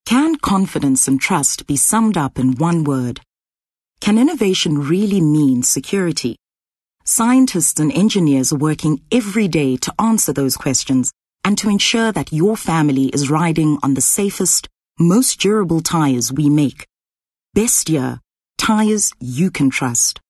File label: English Corporate